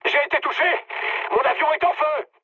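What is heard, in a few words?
on fire, mood medium, French; War Thunder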